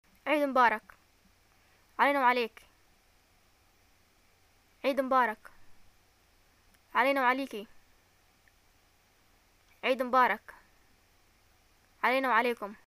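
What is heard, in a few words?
greeting eid and response, Yemeni Arabic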